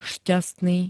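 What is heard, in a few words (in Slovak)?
"šťastný", Slovenské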